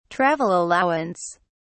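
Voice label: "travel allowance", British English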